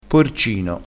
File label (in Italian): "porcino", crespinese, vocabolario dialettale